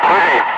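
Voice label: Russian speech, Ka-50 Wingman; bail out; DCS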